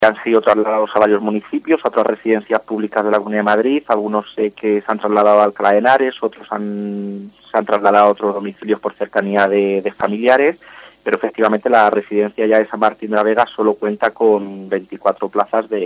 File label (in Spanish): noticias